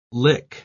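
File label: "lick", English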